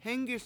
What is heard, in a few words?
"scarf", Washo; hEnggis